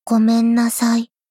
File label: sad sorry